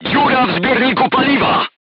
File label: voice message fuel tank damaged, polish